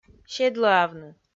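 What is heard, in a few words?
"седлӧавны", Komi, female; kpv audio dict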